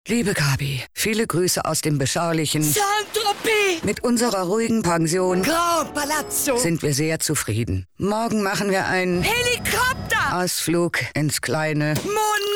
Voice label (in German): urlaubsgruesse werbung